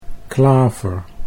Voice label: "klaver", Dutch

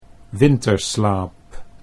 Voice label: "winterslaap", Dutch

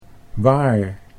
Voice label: "waar", Dutch

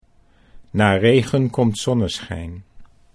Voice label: "na regen komt", Dutch

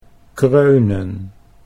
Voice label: "kreunen", Dutch

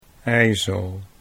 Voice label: "ijzel", Dutch

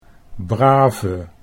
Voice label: "brave", Dutch

